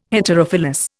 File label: "Heterophyllous", Indian